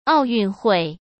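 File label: "奥运会", Mandarin Chinese; hsk; chinese-flashcards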